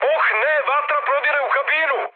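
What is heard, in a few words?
Serbian on fire mood high, speech; War Thunder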